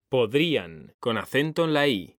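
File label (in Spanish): "PODRÍAN", Spanish; mekanta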